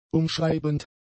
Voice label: "umschreibend", German; adjectives